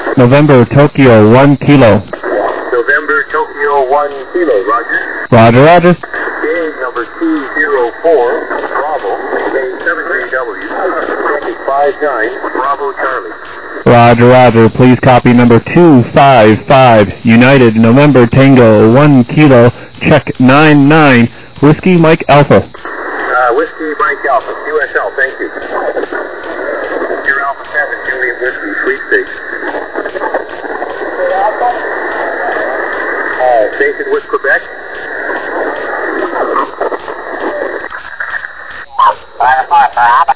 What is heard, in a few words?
SSB USB 21MHz